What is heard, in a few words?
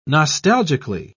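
"nostalgically", British English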